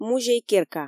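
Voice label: "музей-керка", Komi, female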